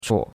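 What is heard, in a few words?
"chuo3", Chinese; Cours-de-Chinois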